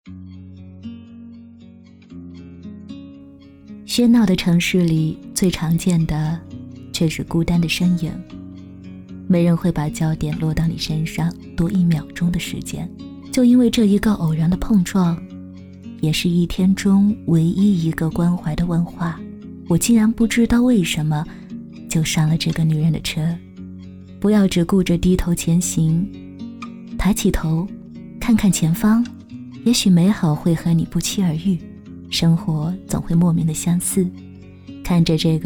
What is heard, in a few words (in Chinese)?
女, 电台, 喧闹的城市情感